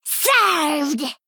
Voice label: Female Plate Play

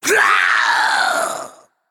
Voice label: Male Naga Death

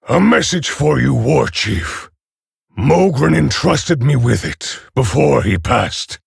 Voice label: Male Orc Ogre; Victory

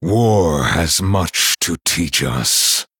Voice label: Male Night Elf

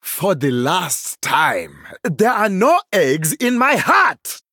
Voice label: Male Troll, HAPPY NOBLEGARDEN

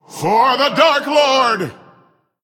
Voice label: Male Human Attack

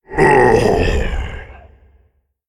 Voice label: Male Death

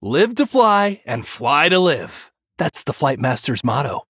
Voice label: Male Human Flavor

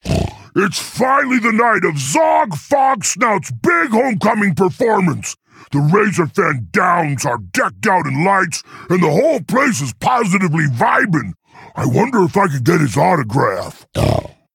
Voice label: Male Quilboar Lorebook

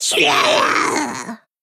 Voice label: Female Geist Death

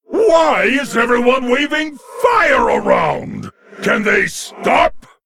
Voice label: Male Lich Fire Festival

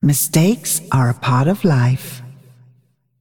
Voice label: Female Dragon Oops

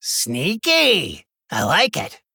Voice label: Male Gnome, Well Played

